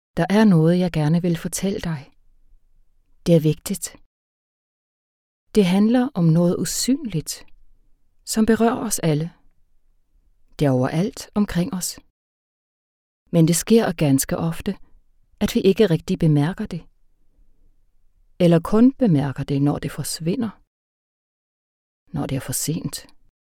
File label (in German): Sprachprobe daenisch doku